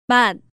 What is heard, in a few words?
"Mat", Korean